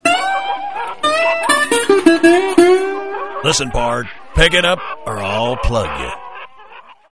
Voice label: Cowboy Listen Pardner